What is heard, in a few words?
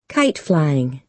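"kite-flying", American English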